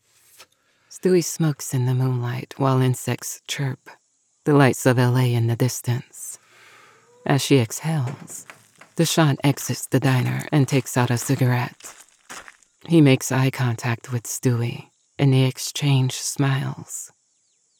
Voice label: MF 3rd person narration Pulp Thriller